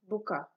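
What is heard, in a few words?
"buka", Nigerian Pidgin, female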